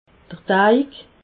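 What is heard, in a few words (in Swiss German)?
"deig", Alsatian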